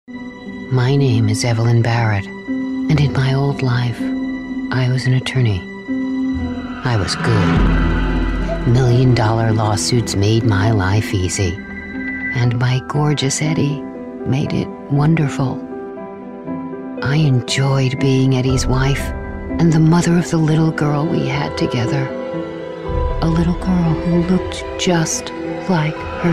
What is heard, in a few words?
us horror